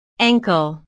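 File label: "ankle", English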